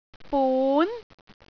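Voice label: "bun2", Cantonese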